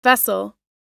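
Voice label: "vessel", English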